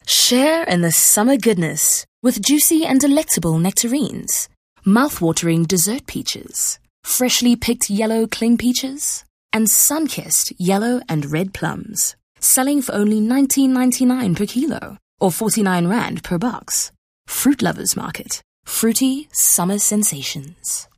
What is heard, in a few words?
English softsell